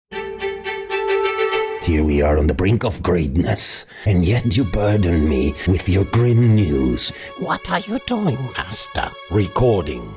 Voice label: English VO Animation